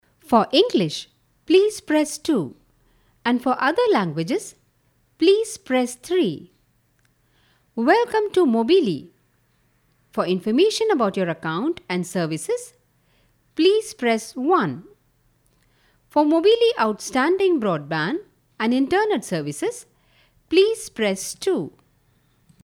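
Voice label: Indian English, female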